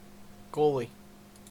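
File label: "goalie", Canadian English; pronunciations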